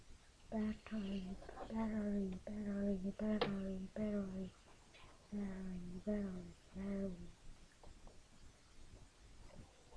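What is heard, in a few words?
"battery", spoken word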